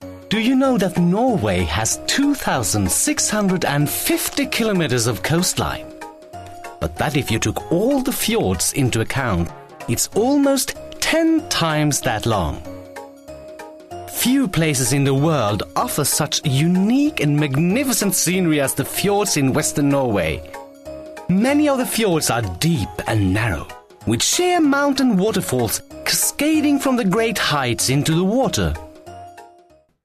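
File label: Norwegian, English; Norwegian, Scandinavian, Male, 20s-30s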